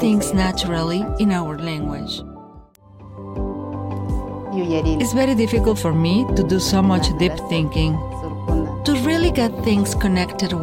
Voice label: spanish latin american documentaries